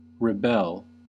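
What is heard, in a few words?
"rebel", American English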